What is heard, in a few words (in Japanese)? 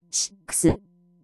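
"シックス" Japanese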